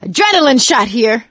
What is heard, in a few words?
adrenaline, survivor voice; Left 4 Dead 2; producer